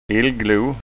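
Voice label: "illglo", Scanian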